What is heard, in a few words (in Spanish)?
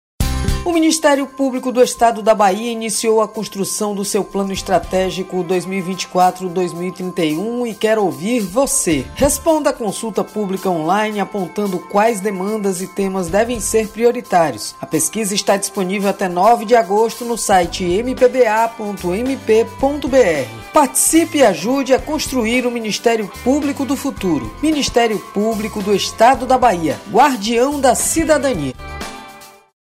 spot radio